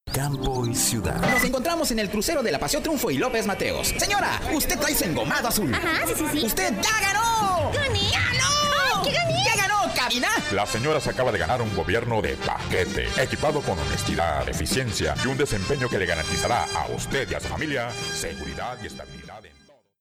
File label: Spanish Latin